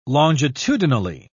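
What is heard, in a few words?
"longitudinally", British English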